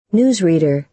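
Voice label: "newsreader", American English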